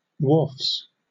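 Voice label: "wharfs" English, British; pronunciations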